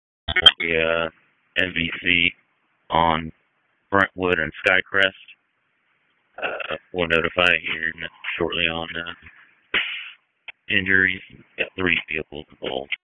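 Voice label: Fire Department HQ Dispatch; RFD HQ DISP; wake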